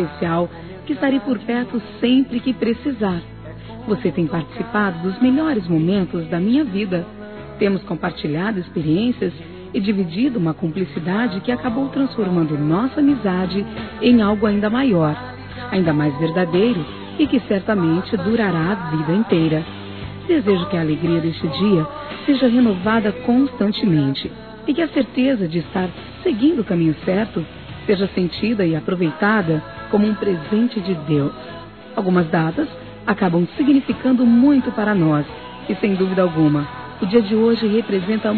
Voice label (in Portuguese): Aniversário Compadre Feminino; Aniversários; Aniv Familiar